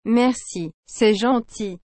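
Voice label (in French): "Merci. C'est gentil", French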